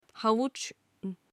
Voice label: carrot in turkish

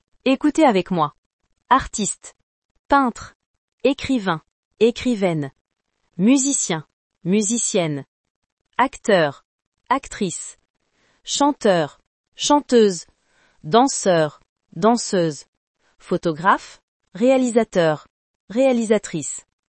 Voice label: job in french

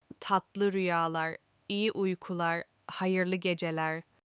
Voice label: good night in turkish

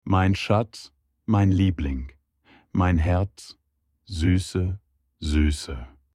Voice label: darling in german

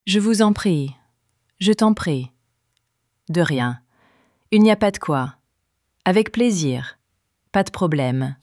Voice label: Youre welcome in french

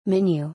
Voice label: "Menu", English

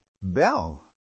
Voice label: beautiful in french

